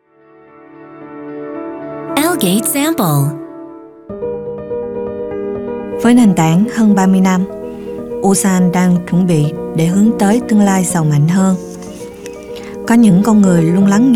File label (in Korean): 베트남 호치민 여 차분한톤